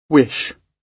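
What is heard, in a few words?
"wish", British English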